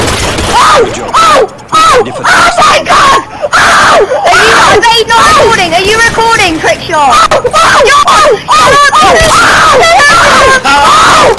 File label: omg trickshot child